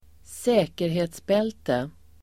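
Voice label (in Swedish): "säkerhetsbälte", Swedish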